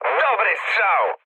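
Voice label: player killed enemy mood high, speech Polish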